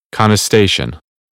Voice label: "contestation", American English; ɑː - ɒ